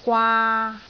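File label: "gwaa3", Cantonese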